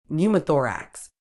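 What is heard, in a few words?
"pneumothorax", medical English, British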